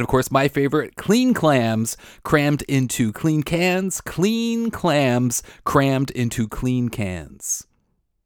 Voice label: Desktop Podcast Test; Shure SM4

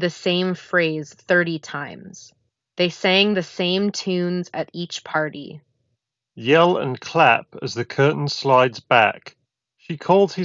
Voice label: Ideal microphone sample; Apple AirPods Pro G

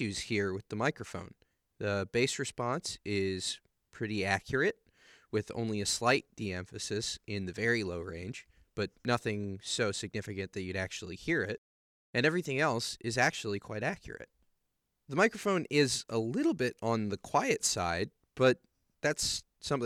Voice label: Fnatic React mic sample

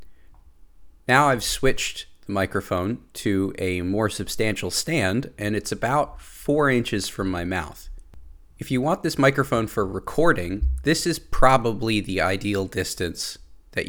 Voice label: HyperX Solocast sample

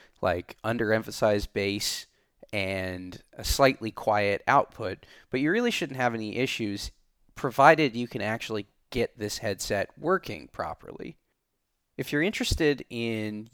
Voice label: Astro A10 microphone sample

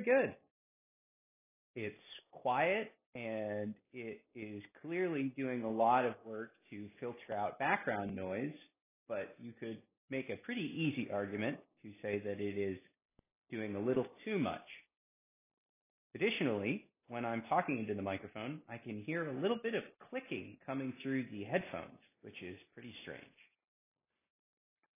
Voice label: internal mic sample; EPOS H3 Hybrid

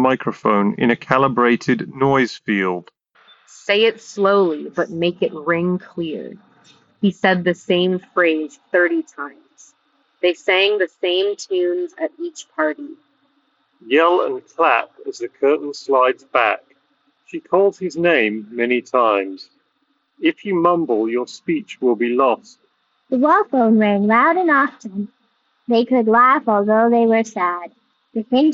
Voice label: CMF Buds Pro 2 Street

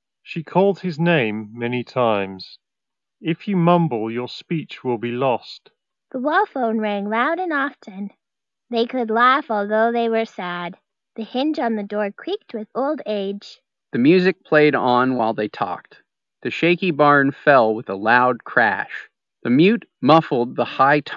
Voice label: JBL Tune 510BT Ideal microphone

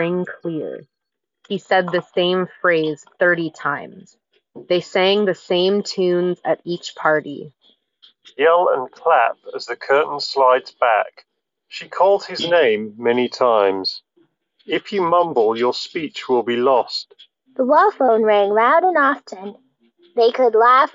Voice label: Office microphone sample; Anker Soundcore Liberty 4 NC

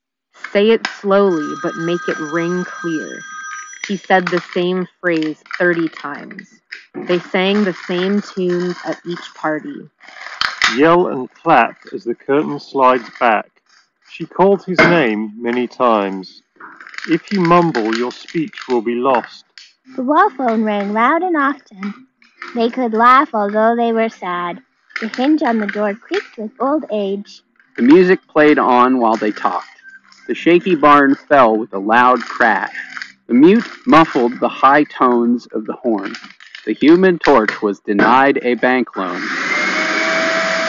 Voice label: Reverb Office sample; Tozo T12

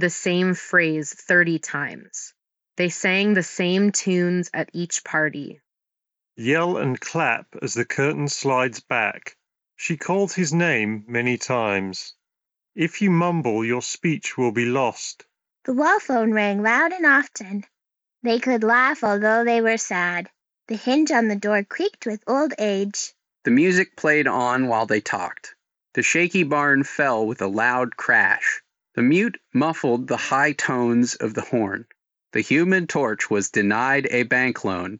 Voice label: Ideal microphone sample; Anker Soundcore Space One Pro

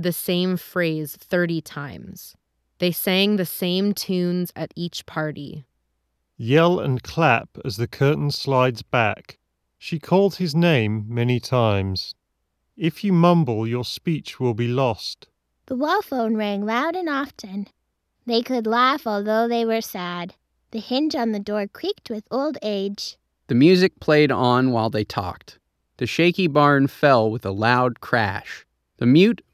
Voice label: Audio Technica ATH GDL3 Ideal microphone sample

